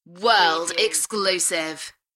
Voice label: UK female; beanstalk audio